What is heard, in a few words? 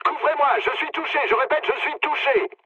aircraft damaged mood low, speech fr; War Thunder